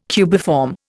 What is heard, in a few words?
"cubiform", Indian